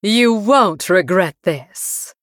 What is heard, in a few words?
Female Bloodelf Picked